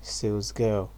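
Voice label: "sales girl", Nigerian Pidgin, male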